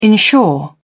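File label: "ensure", British English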